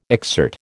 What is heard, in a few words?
"exsert", American